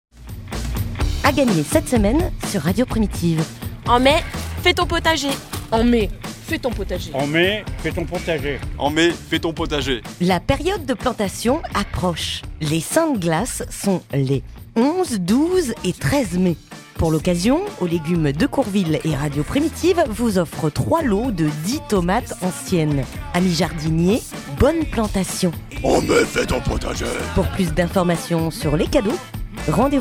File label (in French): jingle